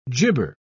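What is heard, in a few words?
"jibber", British English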